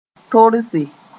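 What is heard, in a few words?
"torse", Mooré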